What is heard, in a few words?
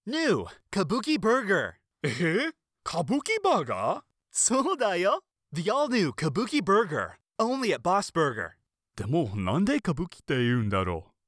foreigner